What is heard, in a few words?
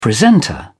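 "presenter", British English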